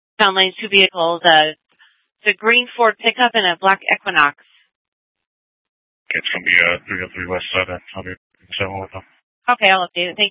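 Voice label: police dispatch, scanner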